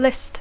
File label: "list", English